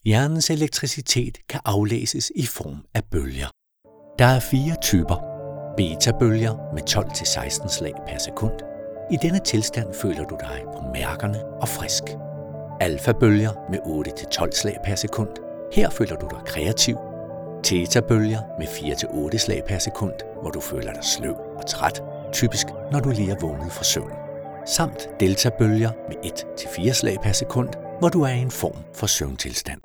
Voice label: Video presentation Explainer 40-49